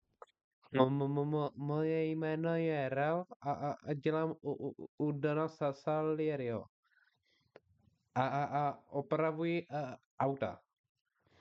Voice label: styl, profesionalni muzsky hlas

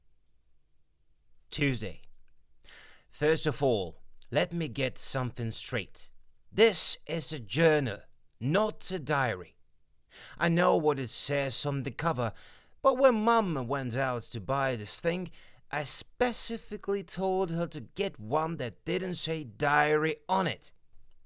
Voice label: anglicky, Mužský hlas - voiceover; dabing; Phorbo

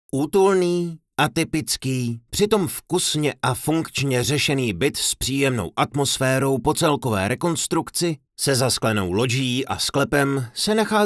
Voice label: Mužský hlas - voiceover/dabing; (60 sekund)

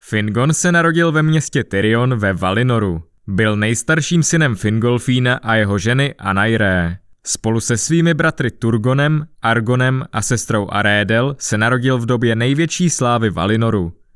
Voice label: Vyprávění, muzsky voiceover

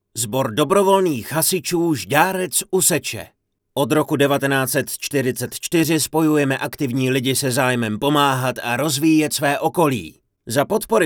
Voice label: Mužský hlas - voiceover/dabing; (60 sekund)

